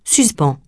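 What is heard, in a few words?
"suspens", French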